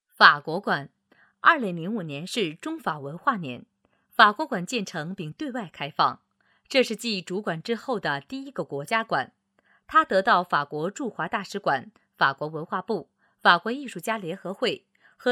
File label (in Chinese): 女 法国馆讲解词